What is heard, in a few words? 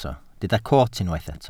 "coot", Welsh